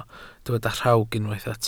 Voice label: "rhawg", Welsh

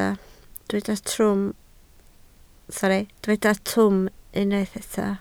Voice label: "twym", Welsh

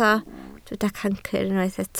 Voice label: "cancr", Welsh

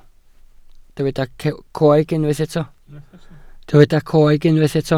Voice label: "coeg", Welsh

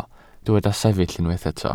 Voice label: "sefyll", welsh

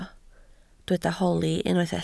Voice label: "holi", Welsh

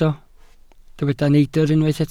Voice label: "neidr", Welsh

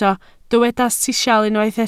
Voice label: "sisial", Welsh

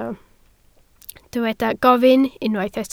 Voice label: "gofyn", Welsh

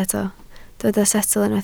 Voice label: "setl", Welsh

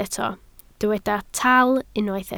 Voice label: "tal", Welsh

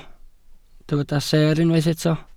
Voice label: "seer", Welsh

